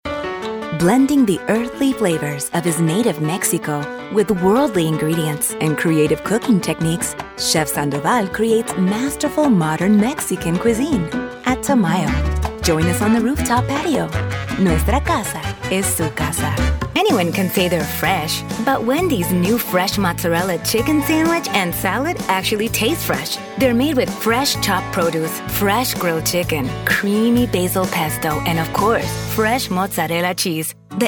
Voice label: ENGLISH SPANISH ACCENT